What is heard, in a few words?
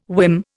"whim", Indian